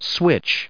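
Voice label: "switch", English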